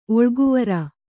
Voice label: "ಒಳಗುವರಿ", Kannada; oḷaguvari; to rush into; to enter forcibly.

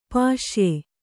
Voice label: "ಪುಸ್ಯ", Kannada

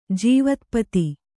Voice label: "ಜೀವತ್ಪತಿ", kannada

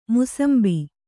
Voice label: "ಮುಸುಂಬಿ", kannada

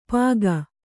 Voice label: "ಪಾಗ", kannada

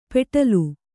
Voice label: "ಪೆಟ್ಲು", Kannada

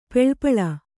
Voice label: "ಪೆಳ್ಪಳ", Kannada; peḷpḷa; noun; = ಪೆಳರು2.

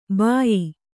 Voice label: "ಭಯ್ಯಾ", Kannada

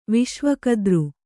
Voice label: "ವಿಶ್ವಕದ್ರು", Kannada

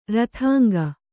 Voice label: "ರಥಾಂಗ", kannada

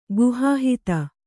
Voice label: "ಗುಹಾಹಿತ", kannada

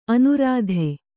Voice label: "ಅನೂರಾಧ", Kannada

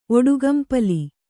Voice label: "ಒಡುಗಂಪಲಿ", kannada

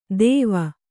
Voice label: "ತೇವ", Kannada

